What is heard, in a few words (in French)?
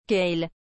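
"quelle", French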